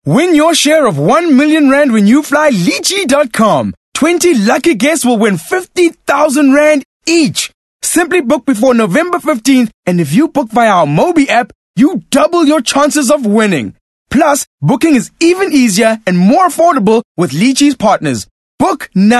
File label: English Hard Sell